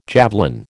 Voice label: "javelin", American

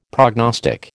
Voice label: "prognostic", American

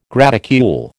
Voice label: "graticule", American

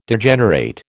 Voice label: "Degenerate", American

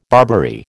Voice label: "Barbary", American

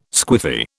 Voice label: "squiffy", British

